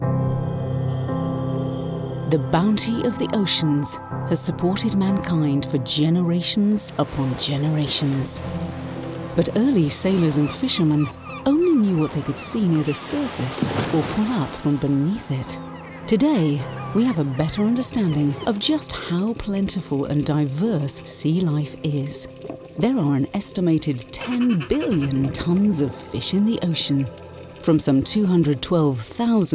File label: Narration TV Documentary; NEXOS